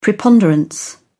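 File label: "preponderance", British English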